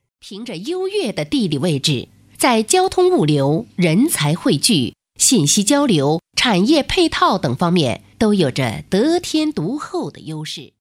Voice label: Chinese Mandarin Professional female, Foreign Voice Talent